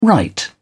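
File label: "write in", English, British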